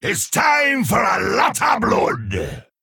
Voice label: Male Troll Play